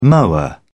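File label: "mower", British English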